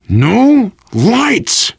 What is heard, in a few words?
kill that light, voice, biker